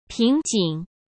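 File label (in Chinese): "瓶颈", Mandarin Chinese; hsk; chinese-flashcards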